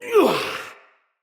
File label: attack, soldier male, en; Battle; Barks; Dialog; Dendrit